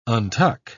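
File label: "untuck", English, British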